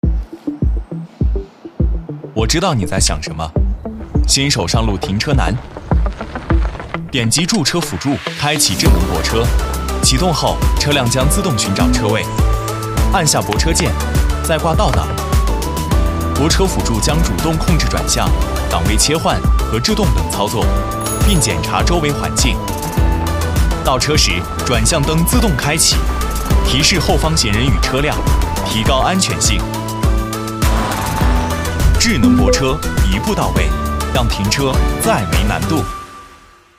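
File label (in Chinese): 男 年轻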